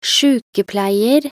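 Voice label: "sjukepleier", Norwegian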